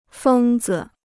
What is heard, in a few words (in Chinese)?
"疯子", Chinese